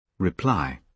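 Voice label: "reply", British English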